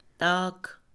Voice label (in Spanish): madre, Mixe, Mujer; Parentesco; INALI